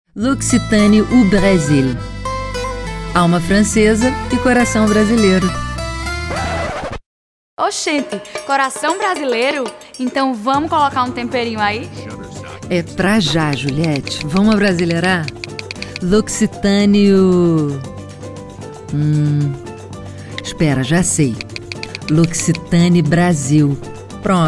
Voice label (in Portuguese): voz da marca; loccitane